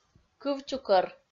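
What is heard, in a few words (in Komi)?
"кывчукӧр", Komi, female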